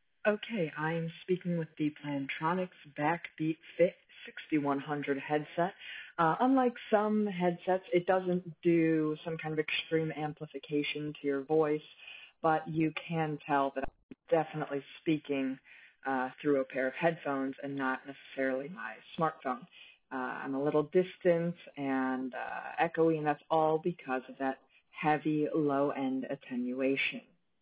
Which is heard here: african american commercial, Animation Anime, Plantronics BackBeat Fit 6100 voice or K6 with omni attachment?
Plantronics BackBeat Fit 6100 voice